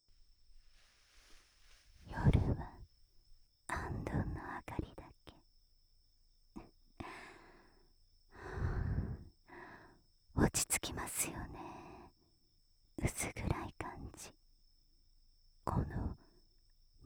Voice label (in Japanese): 安眠誘導 囁き 甘噛み 耳舐め キス, ASMR; 効果音あり 射精音あり